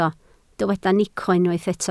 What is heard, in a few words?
"nico", Welsh